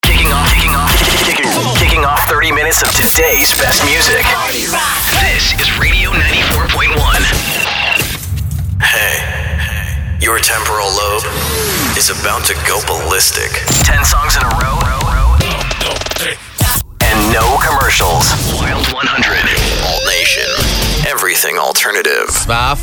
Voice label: imaging voice; Formats Alternative, Top40, Rock, CHR